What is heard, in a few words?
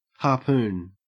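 "harpoon", Australian English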